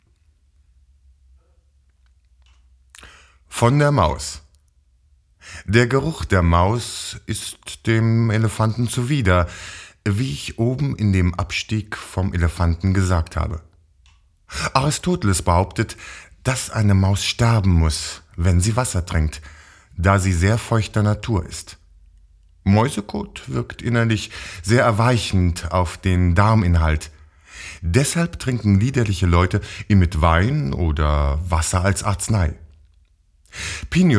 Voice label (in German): Hörspiel; Megenberg